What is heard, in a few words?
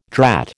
"drat", American